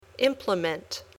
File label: "implement", English